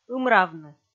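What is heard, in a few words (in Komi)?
"ымравны", Komi, female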